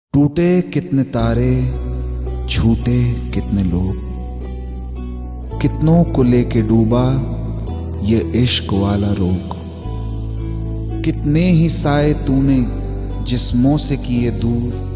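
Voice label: Dialogue Teaser Version Ringtone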